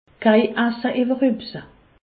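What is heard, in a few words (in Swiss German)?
"iwwerhupse", Alsace, Haut Rhin; medecine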